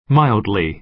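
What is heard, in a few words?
"mildly", American English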